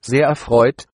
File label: meet, german